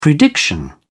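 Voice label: "prediction", English, British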